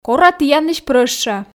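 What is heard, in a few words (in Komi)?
кора тіянлысь прӧща, Komi, female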